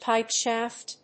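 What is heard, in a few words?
"pipe shaft", English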